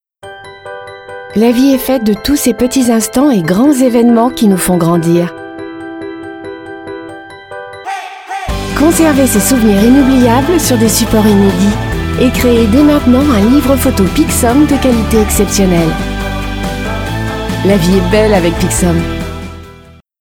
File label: commercial; pixum